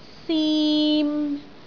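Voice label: "sim3", Cantonese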